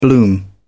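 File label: "bloom", British English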